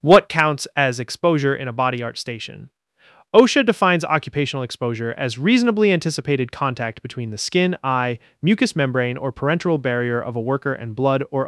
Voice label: calm mentor; exposure definition; bloodborne pathogens; compliance